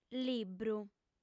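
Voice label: "libru", female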